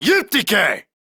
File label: Attack Male, Battle Barks; Warframe; Modular Corpus; en